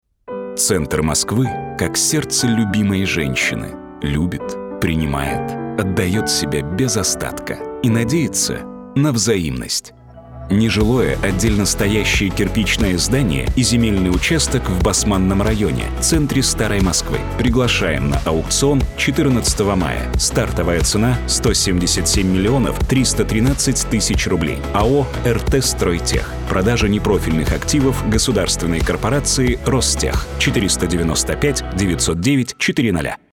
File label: russian m commercial